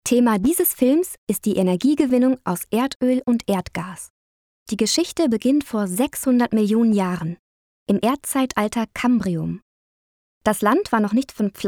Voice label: deutsch frauen lehrfilm; sprecher

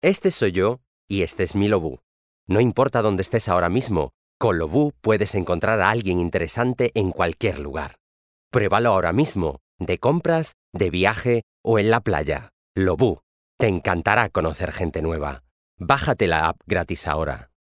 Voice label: werbung, spanisch

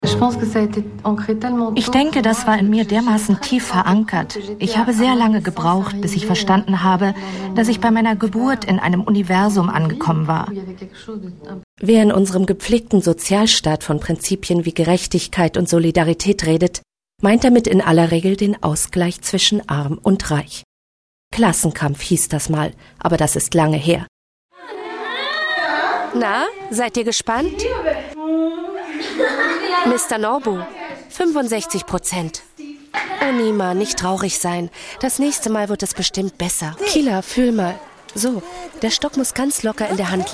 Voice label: doku, deutsch frauen; sprecher

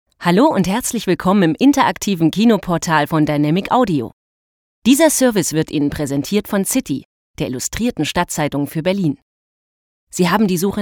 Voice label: telefon, deutsch frauen

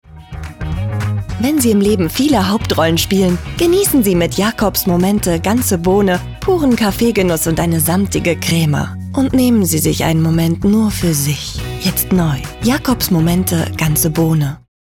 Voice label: deutsch frauen spot; sprecher

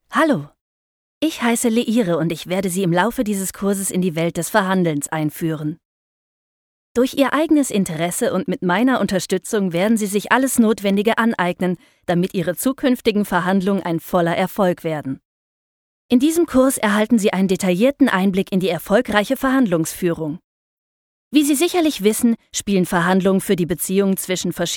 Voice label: elearning, deutsch frauen

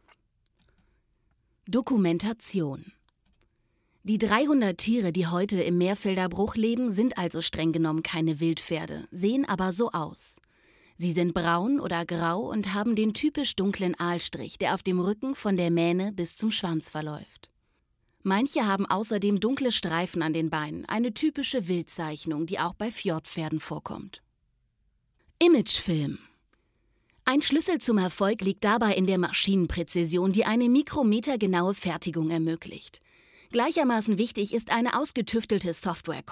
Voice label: deutsch frauen

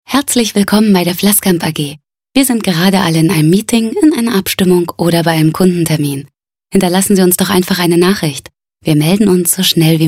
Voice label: telefon, deutsch frauen

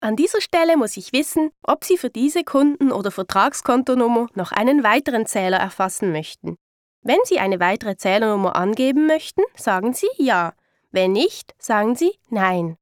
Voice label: ch telefon, schweizerisch

